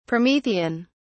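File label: "promethean", British English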